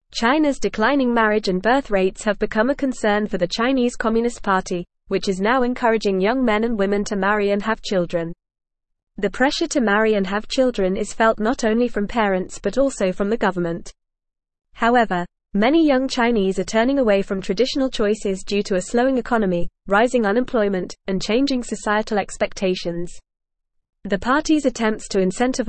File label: English Newsroom Advanced FAST Reading